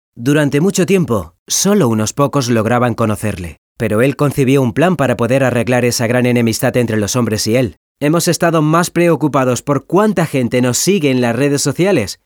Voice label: Spanish Castilian narration

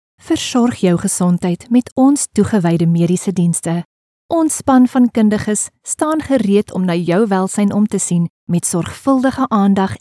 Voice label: afrikaans medical narration; Synchronsprecher aus Südafrika

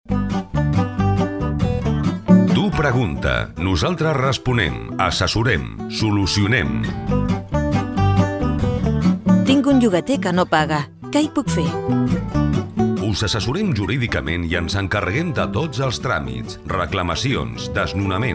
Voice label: catalan e learning

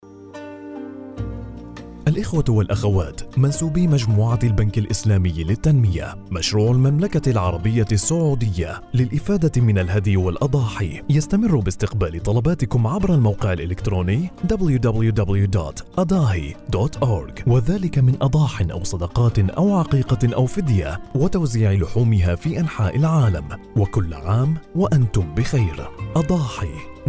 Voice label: arabic narration

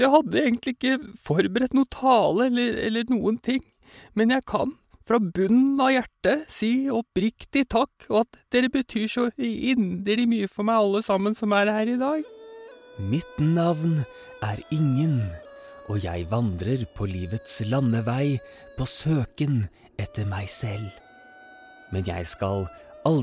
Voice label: norwegian animation

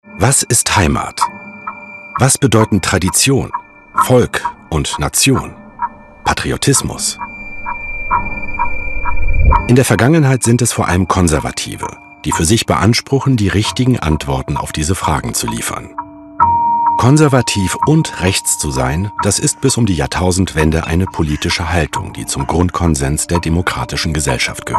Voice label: german documentaries, German voice actor

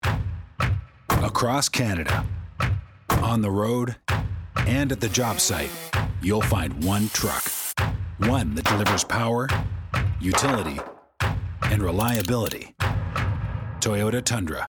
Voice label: english canadian automotive

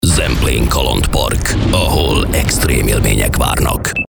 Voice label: hungarian movie trailers

